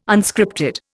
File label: "Unscripted", Indian